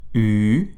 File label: French alphabet u